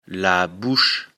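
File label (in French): "bouche", French; afrikalan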